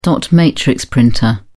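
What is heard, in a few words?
"dot matrix printer", British English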